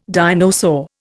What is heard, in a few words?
"dinosaur", Indian